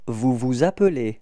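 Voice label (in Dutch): appeler vous, frans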